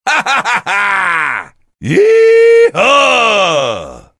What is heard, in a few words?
cheer; svencoop; voice commands; Duke